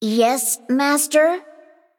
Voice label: Female Mech Play